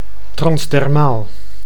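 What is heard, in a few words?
"transdermaal", Dutch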